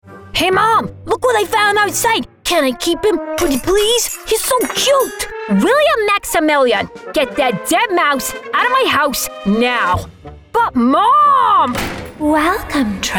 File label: female English multiple accents character compilation; english northern accents